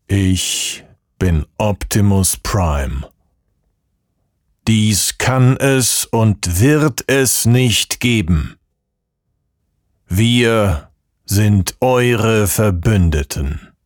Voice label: german impersonations; actor de doblaje profesional